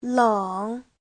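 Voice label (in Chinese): "leng3" Chinese; lạnh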